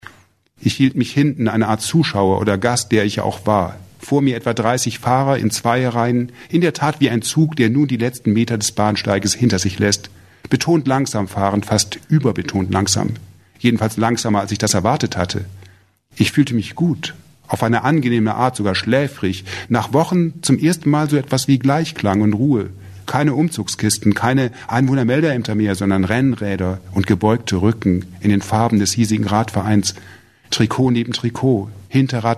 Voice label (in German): Lesung